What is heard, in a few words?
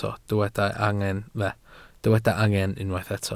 "angen", Welsh